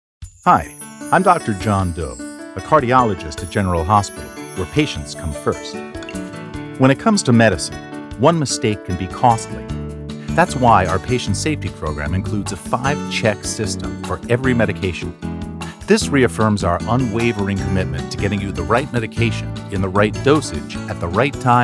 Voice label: ad doctor with music; general hospital